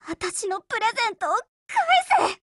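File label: DEAD Japanese, Voice; GF Alarm; Destroyer Christmas buhuo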